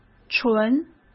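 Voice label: "纯", Mandarin Chinese; hsk; chinese-flashcards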